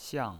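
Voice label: "xiang", pinyin voice, fourth tone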